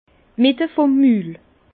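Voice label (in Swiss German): "mittevummul", Alsace Bas Rhin; vin; milieu de bouche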